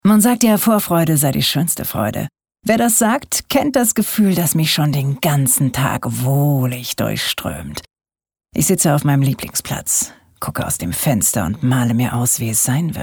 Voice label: Sinnlich; KleineFreuden

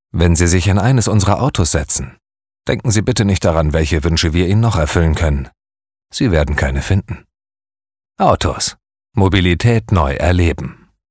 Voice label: Werbung Cool

